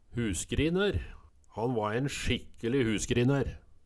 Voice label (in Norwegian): "husgrinær", Numedalsmål